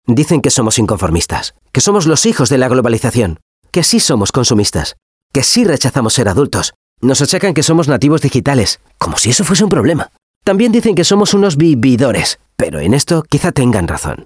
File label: European Spanish TVC